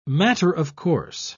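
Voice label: "matter-of-course", British English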